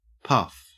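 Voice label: "puff", Australian English; pronunciations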